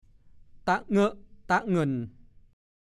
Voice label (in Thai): ตะเง่อะตะเงิ่น, ภาษาโคราช; ดูคำว่า ตะเง่อะ